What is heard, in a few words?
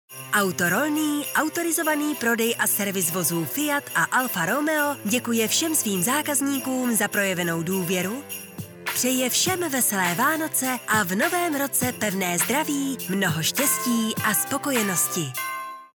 female czech voice over sales